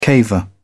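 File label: "caver", British English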